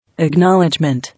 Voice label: "Acknowledgement" English